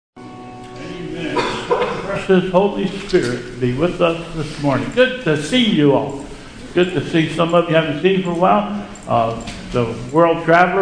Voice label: Announcements; Sunday Service

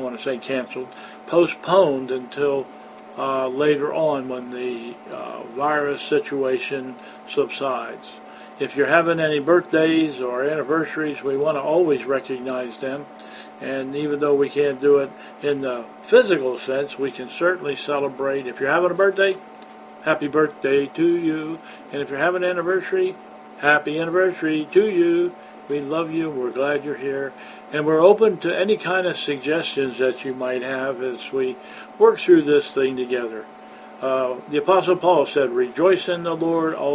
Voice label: Announcements; Sunday Service

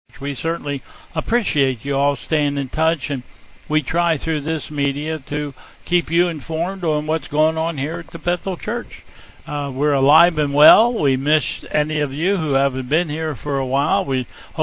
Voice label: Welcome and Announcements

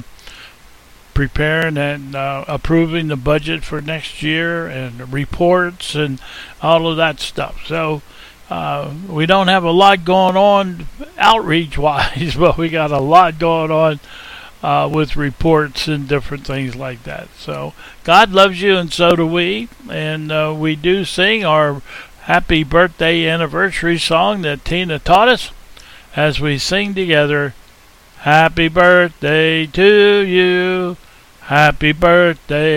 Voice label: Welcome and Announcements

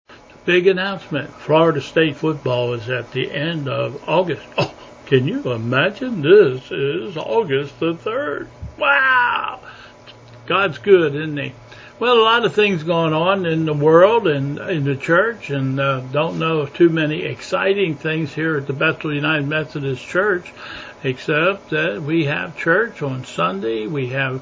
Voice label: Welcome and Announcements

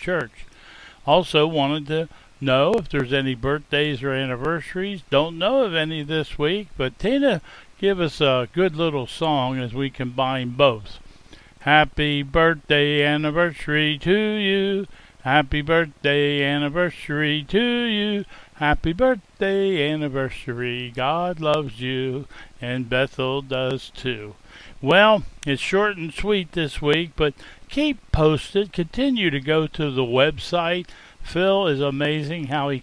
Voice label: Welcome and Announcements

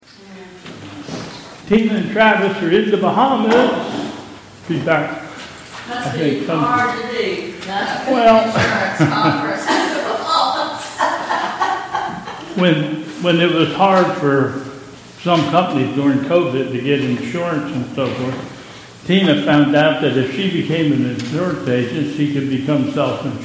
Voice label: Announcements

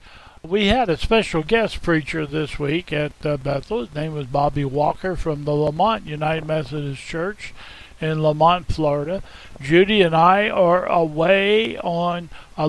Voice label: Welcome and Announcements

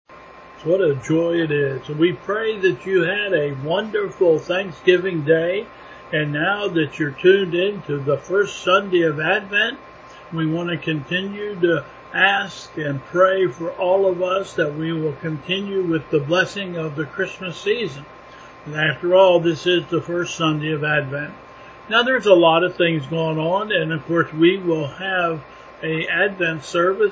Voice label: Welcome and Announcements

